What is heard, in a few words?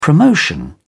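"promotion", British English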